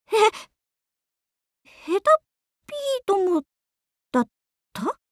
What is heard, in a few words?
victory, jp; chasers; bellona